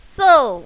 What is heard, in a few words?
"sou4", mandarin, female